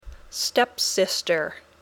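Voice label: "step-sister", English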